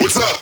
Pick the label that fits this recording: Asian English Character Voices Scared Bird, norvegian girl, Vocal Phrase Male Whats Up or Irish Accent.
Vocal Phrase Male Whats Up